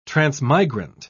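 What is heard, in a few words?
"transmigrant", British English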